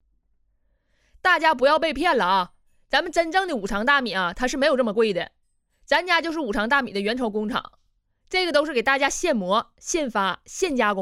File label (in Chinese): 东北方言 女 东北话 卖货话术; 方言配音